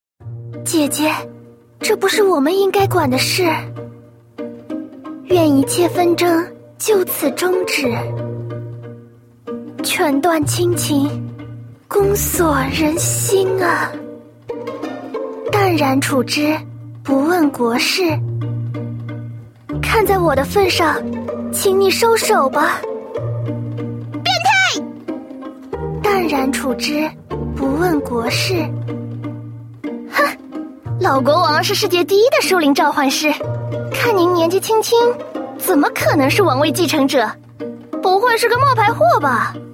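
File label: female young girl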